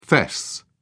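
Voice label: "fess up", British English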